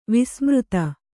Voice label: "ವಸುಮದ", Kannada

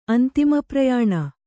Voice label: "ಅಂತಿಮಪ್ರಯಾಣ", Kannada

